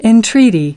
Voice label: "entreaty", us